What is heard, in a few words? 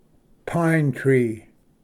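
"pine tree", English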